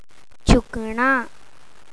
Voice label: "lift", Punjabi